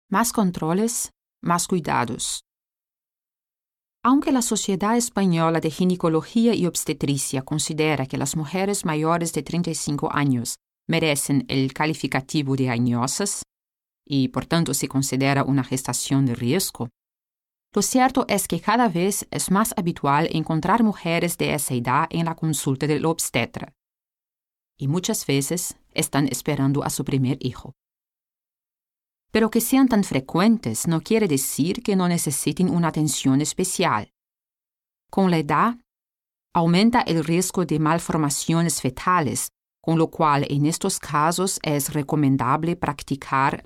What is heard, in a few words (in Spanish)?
es